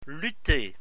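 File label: "lutter", French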